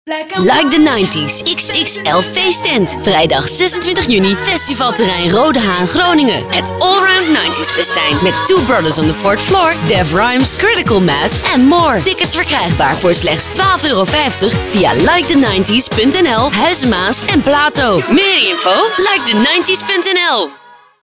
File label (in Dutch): Commercial; Like The 90s XXL Feesttent 2015; Joy Radio